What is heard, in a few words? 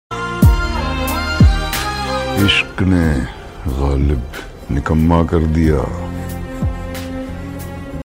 dialogue ringtone